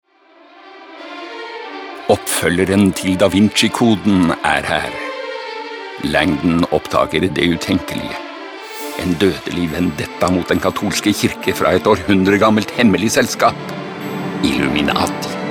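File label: Norwegian commercial movie trailer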